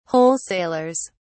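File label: "wholesalers", British English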